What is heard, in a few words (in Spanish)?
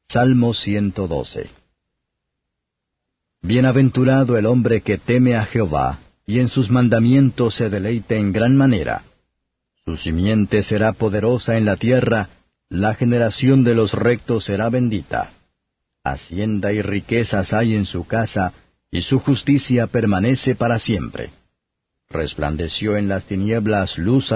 salmos spanish